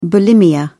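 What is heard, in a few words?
"bulimia", British English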